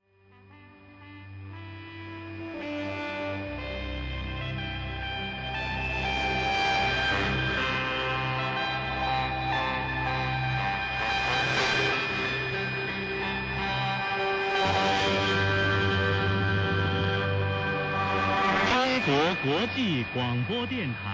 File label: 7315 KHz esperanto; CRI; FRG 7; Fribourg